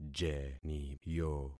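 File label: "jeniyo", concatenated syllables; Affixing experiment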